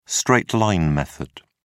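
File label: "straight-line method", British English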